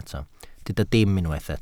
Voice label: "dim", Welsh